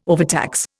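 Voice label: "overtax", Indian English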